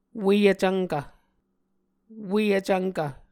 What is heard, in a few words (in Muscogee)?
"ue vcvnkv", Muscogee